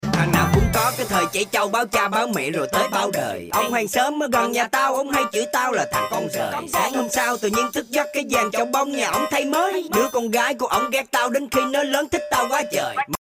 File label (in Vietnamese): Doan Rap; TikTok